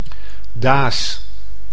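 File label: "daas", Dutch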